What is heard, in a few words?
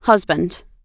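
"husband", US English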